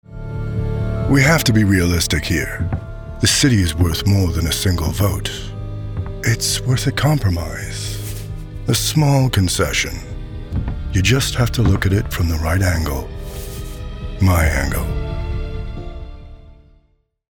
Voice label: Crooked Politician / Sly, Smooth-talking, Calculated, Imposing; Character Animation Demo